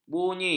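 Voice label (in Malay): "bunyi", Malay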